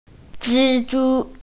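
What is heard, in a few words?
"spider", Mandarin; zhi1zhu1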